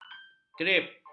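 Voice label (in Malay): "kerip", Malay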